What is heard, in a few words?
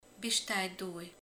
"huszonkettő", romani